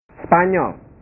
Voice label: "spanyol", bahasa